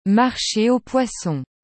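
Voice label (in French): "Marche au poissons", French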